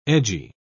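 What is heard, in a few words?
"edgy", English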